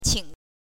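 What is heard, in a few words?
"qing", Mandarin pinyin, neutral tone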